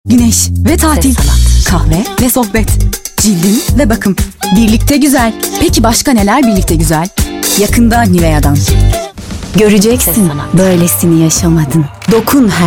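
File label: Turkish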